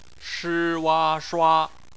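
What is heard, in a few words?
"shua" bopomofo, Chinese; phonetics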